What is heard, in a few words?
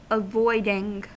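"avoiding", American English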